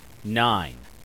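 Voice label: "nine", English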